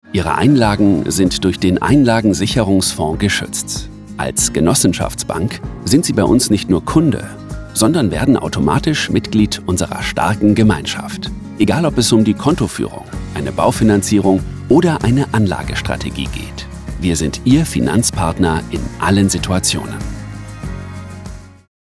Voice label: imagefilm sympahtisch glaubwuerdig musik

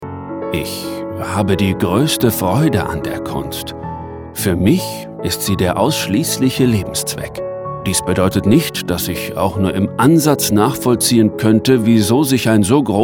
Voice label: audioguide gewaehlt kuenstlerisch musik

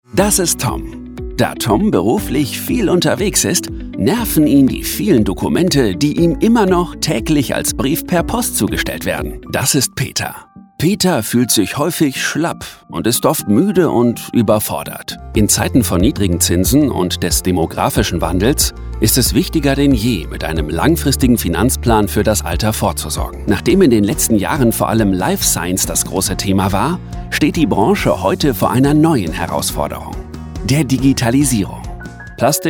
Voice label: erklaervideo mix

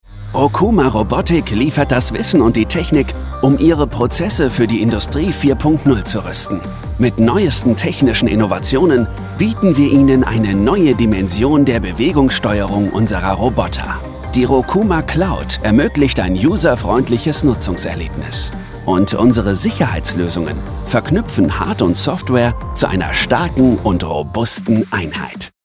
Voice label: imagefilm smart dynamisch musik

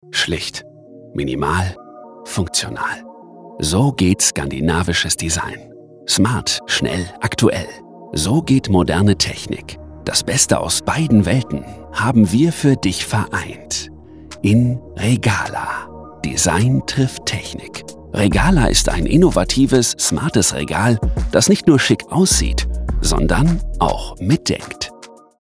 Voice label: produktvideo smart warm musik

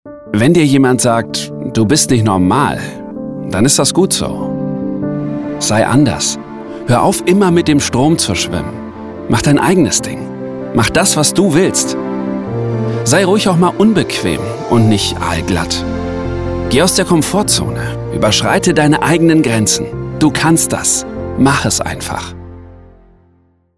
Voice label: werbung authenthisch motivierend musik

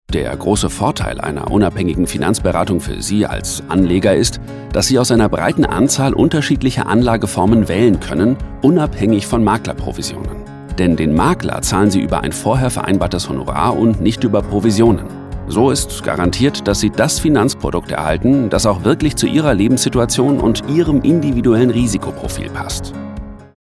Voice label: erklaervideo kompetent glaubwuerdig musik

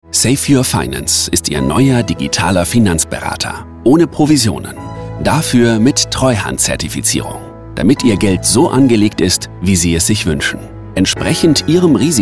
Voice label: werbung kompetent glaubwuerdig musik

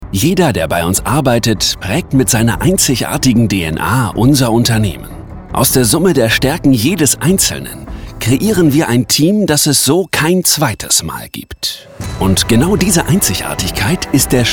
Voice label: werbung dynamisch warm musik

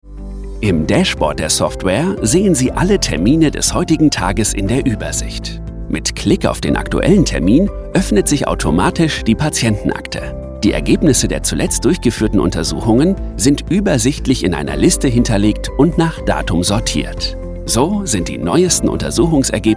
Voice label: e-learning motivierend leicht musik

